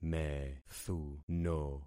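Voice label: "methuno", concatenated syllables; Affixing experiment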